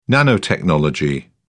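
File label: "nanotechnology", British English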